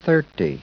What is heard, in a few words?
SPEECH ENGLISH